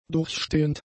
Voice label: "durchstehend", german; adjectives

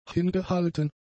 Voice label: "hingehalten", German; adjectives

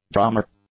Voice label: "drummer", English; nouns

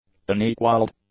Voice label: "unequaled", english; adjectives

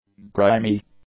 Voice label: "grimy", english; adjectives

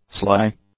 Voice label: "sly", English; adjectives

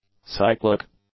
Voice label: "cyclic", English; adjectives

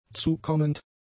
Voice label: "zukommend", German; adjectives

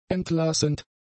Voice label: "entlassend", German; adjectives

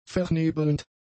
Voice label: "vernebelnd", German; adjectives

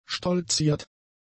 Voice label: "stolziert", German; adjectives

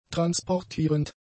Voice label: "transportierend", German; adjectives

